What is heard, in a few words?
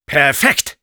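Sniper good job, german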